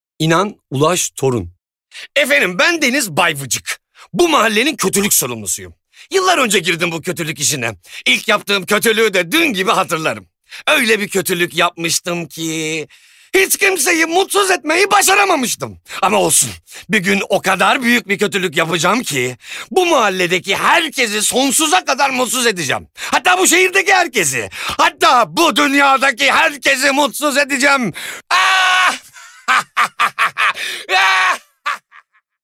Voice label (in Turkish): Animasyon